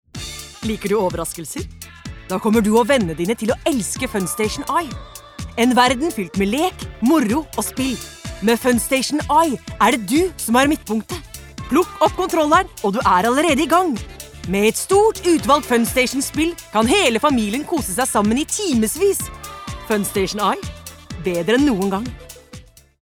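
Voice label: Norwegian cheerful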